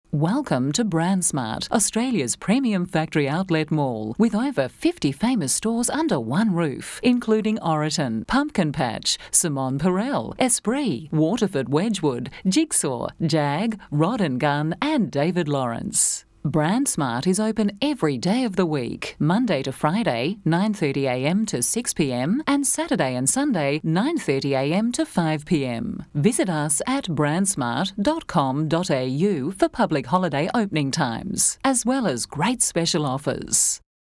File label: Message On Hold